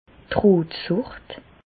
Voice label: "rotsucht", Bas Rhin; medecine; rougeole

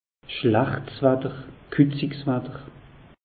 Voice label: "schlachts", alsace; meteo

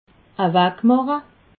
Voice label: "ewegmache", alsace

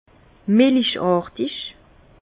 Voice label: "melichartig", Bas Rhin; laiteux; vin

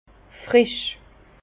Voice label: "frisch", Bas Rhin; vin; vif

